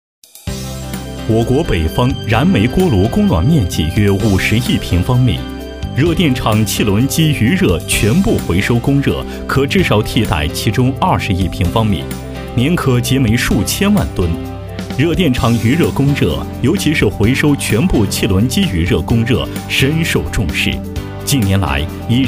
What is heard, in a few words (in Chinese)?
产品解说 汽轮机